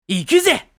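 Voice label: "Come on", anime boy character, japanese voice over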